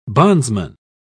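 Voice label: "bondsman", British English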